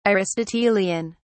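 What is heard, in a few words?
"aristotelian", British English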